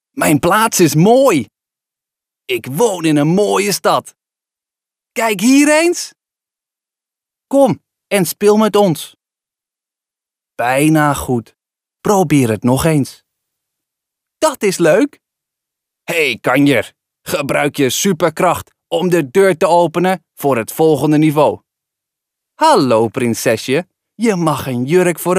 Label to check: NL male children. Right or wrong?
Right